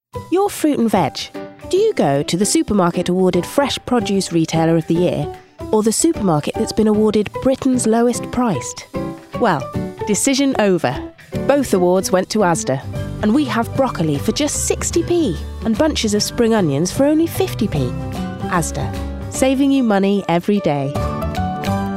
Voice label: Commercial; Asda